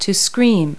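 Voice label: "scream", verb; Multi-Lingual Talking Picture Dictionary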